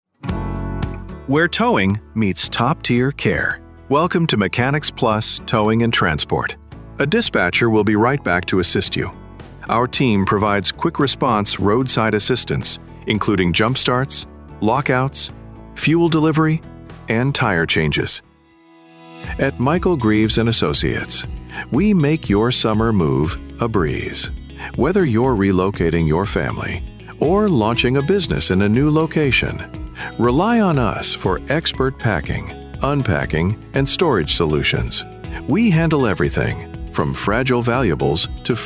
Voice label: MALE